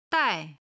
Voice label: "dai4", Chinese